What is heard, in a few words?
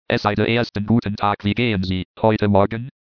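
"guten tag", German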